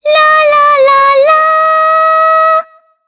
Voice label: vox, jp; heroes; Shea; kingsraid